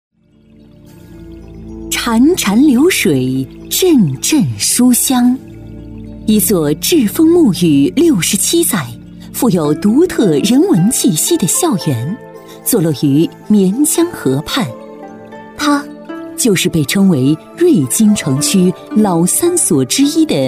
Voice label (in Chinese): 女 学校宣传片 正式大气; 沉稳大气; 井冈山小学